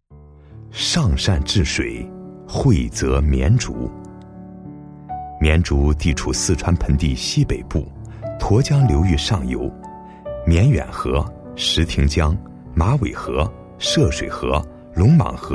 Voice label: 男 优美 温情; 绵竹

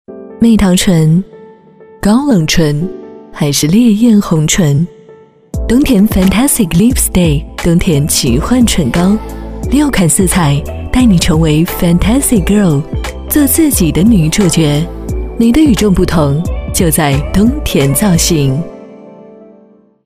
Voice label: 女 东田造型（品质感）

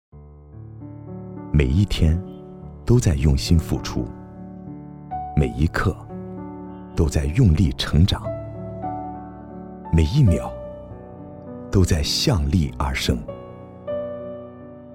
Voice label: 男 温暖 温情

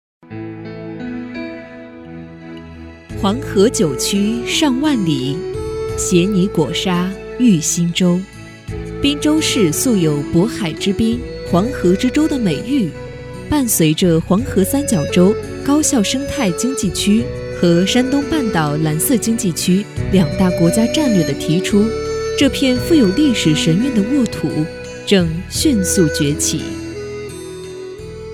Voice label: 女 专题-沉稳大气; 滨州

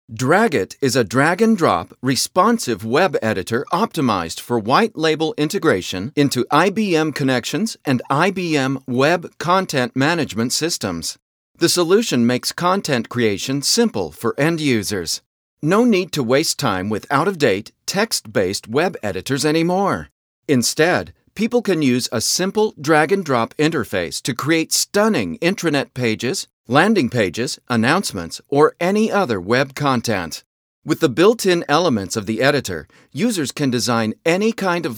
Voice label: 外籍男 产品讲解

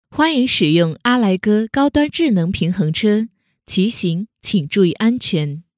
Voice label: 女 智能播报

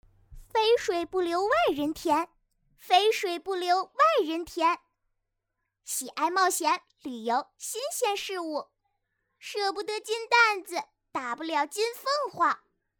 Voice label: 女 女童; 童声

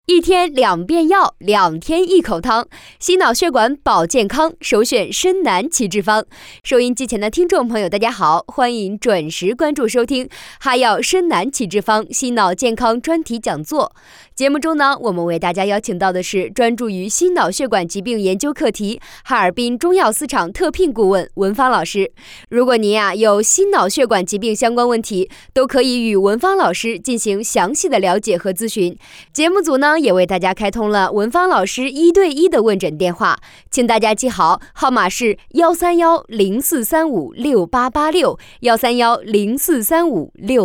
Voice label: 女; 心脑健康专题讲座

